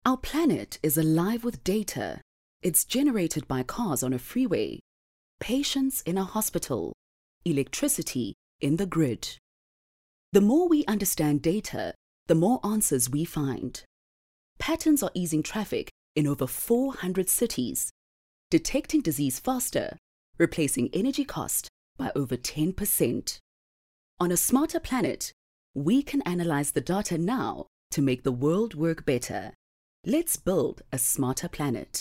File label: English Corporate